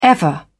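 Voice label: "ever", British English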